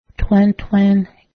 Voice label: duck, Cayuga

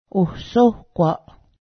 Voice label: paint, Cayuga

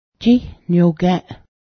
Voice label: chipmunk, Cayuga

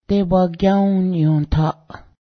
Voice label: haunted, cayuga

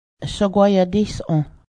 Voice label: our maker, cayuga

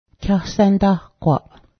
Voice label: council house, Cayuga

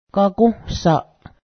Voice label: mask, Cayuga

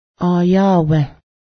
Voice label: hopefully, Cayuga

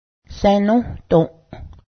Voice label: "know", Cayuga

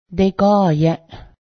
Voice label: game, Cayuga

